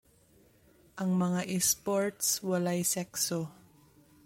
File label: Cebuano